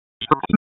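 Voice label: Police District Tactical, p25; Raleigh; Northeast; wake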